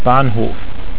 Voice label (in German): "bahnhof", German